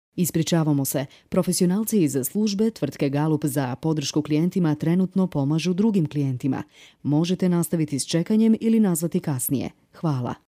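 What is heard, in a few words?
Croatian, General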